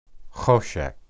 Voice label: "hoshek", Hebrew